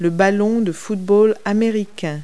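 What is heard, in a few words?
"football", English word; Multi-Lingual Talking Picture Dictionary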